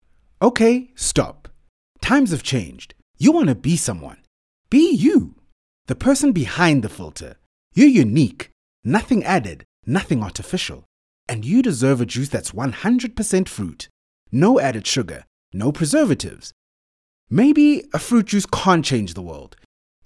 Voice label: English Bright; OSM Talent